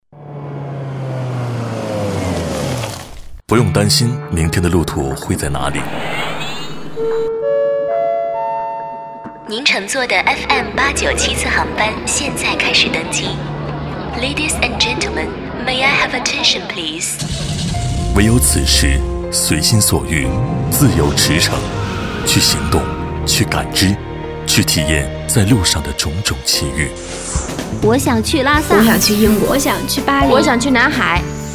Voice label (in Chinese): 男 广告 大气时尚 广播电台版头; FM89.0湖南广播电台版头